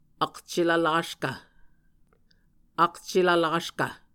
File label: "akcelvlaskv", Muscogee